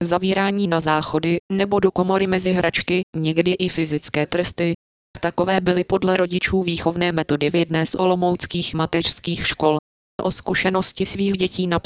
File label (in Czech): syntéza řeči